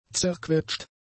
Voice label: "zerquetscht", German; adjectives

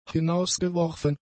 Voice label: "hinausgeworfen", German; adjectives

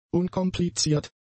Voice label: "unkompliziert", German; adjectives

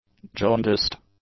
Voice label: "jaundiced", english; adjectives

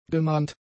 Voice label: "gemahnt", German; adjectives

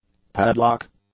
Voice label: "padlock", English

